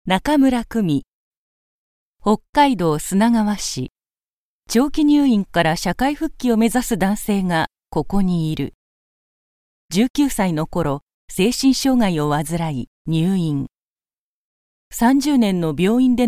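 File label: female documentary, English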